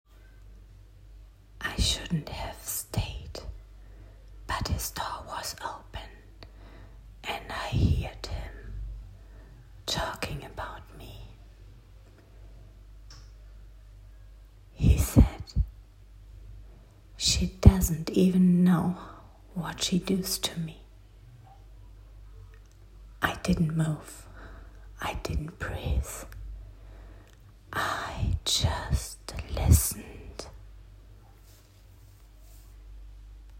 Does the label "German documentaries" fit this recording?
No